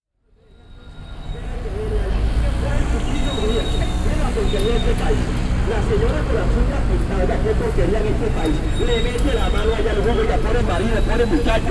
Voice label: bogota bonimenteur

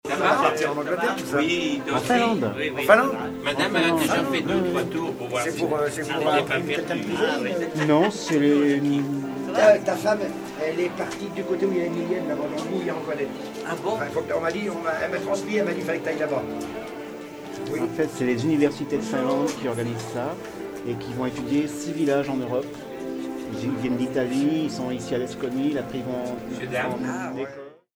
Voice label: parenthese Lesco conversation

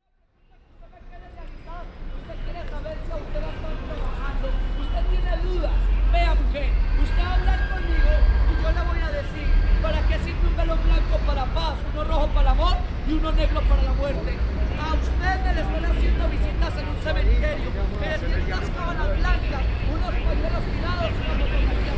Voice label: bogota harangue devin; micro scene

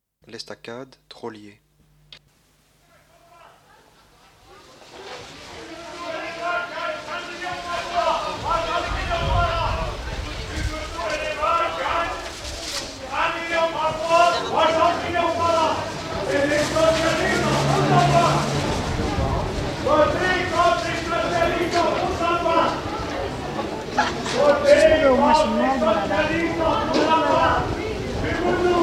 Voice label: crieur public

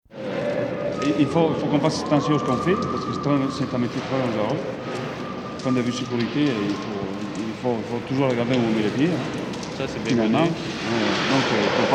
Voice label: modulation voix, chantier; Culture sonore chantier

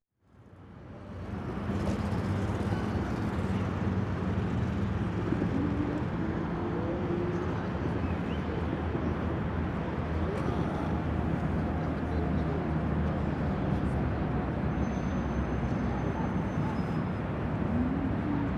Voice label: port genes accents faux semblants

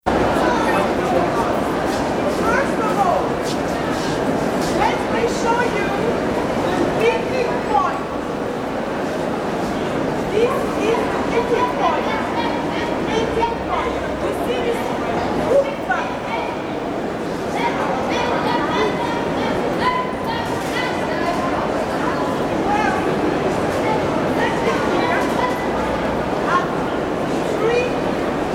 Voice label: creneau guide Louvre

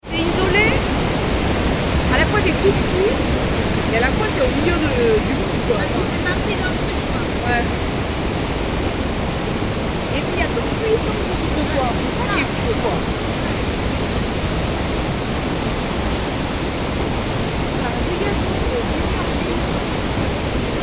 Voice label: conversation; Pont romain

